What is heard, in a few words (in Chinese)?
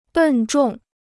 "笨重", Chinese